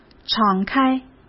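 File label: "敞开" Mandarin Chinese; hsk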